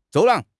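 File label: "走廊", Chinese; HSK6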